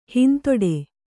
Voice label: "ಹಿಂದಡಿ", Kannada

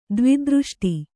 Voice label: "ದ್ವಿದೃಷ್ಟಿ", Kannada

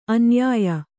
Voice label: "ಅನುಯಾಯಿ", Kannada

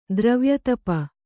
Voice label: "ದ್ರವ್ಯತಪ", Kannada

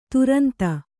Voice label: "ತುರಂತ", Kannada

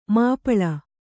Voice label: "ಮಾಪಾಳ", Kannada

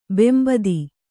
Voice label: "ಬೆಂಬೂದಿ", Kannada

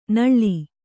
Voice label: "ನೋಳ್", Kannada

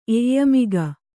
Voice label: "ಎಯ್ಯಮಿಗ", Kannada

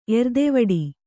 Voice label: "ಎರ್ದೆವಡಿ", Kannada

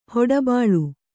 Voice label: "ಹೊಡಬಾಳು", kannada

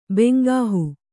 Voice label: "ಬೆಂಗಾಹು", Kannada

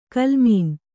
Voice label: "ಕೋಲುಮೀನು", Kannada

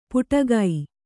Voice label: "ಪುಟಗೈ", Kannada

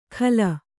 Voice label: "ಖಲ", Kannada

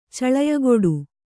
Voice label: "ಚಳಯಗೊಡು", Kannada; caḷayagoḍu; to sprinkle (as water).

